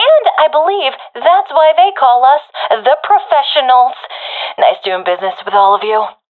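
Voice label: round won nocasualities, professional female; vo; Counter Strike Model Pack; CS:GO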